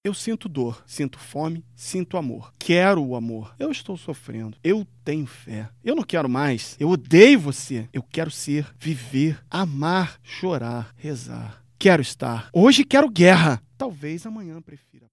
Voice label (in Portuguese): Portuguese Brazil